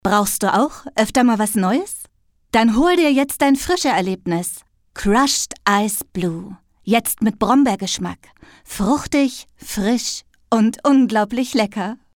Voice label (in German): werbung; crushed ice blue selbstverfasst; casting stimmprobe